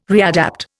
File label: "readapt", Indian